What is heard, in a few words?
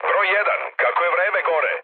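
Serbian chat; speech; War Thunder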